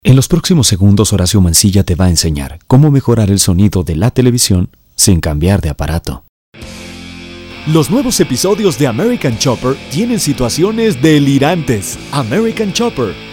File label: Promo